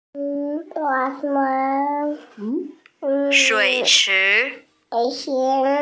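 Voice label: "shui3 chi2", Mandarin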